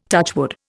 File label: "touchwood", Indian